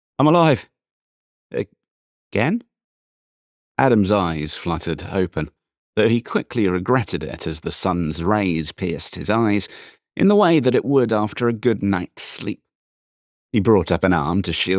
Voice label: LitRPG Sample, British Male Audiobook Narrator, British RP voice; Beyond Chaos